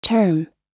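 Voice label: "term", American English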